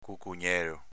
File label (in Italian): "cucugnelo", dialetto veneto; cucugnèlo (cocón)